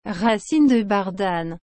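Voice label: "racine de bardane", French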